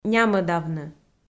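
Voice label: "нямӧдавны", Komi, female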